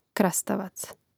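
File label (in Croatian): "krastavac", Croatian; Mrežnik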